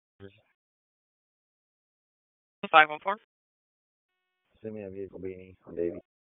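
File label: Police Southeast District Dispatch; Raleigh; p25; wake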